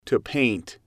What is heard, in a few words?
"to paint", English